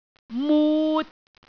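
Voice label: "mut3", Cantonese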